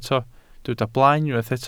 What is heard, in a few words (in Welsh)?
"blaen", Welsh